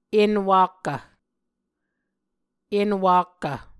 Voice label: "enwakkv", Muscogee; Mvskoke Words with Repeated Consonants; Martin, Jack B., and Margaret McKane Mauldin. 2023. A Dictionary of Creek/Muskogee

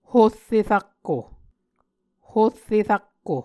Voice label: "horre rakko", Muscogee; Mvskoke Words with Repeated Consonants; Martin, Jack B., and Margaret McKane Mauldin. 2023. A Dictionary of Creek/Muskogee

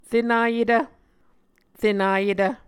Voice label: "renayetv", Muscogee

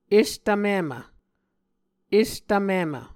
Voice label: "estvmimv", Muscogee

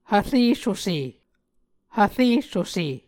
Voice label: "hvressuse", Muscogee; Mvskoke Words with Nasalized Vowels

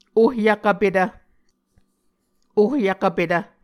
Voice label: "ohyvkvpetv", Muscogee

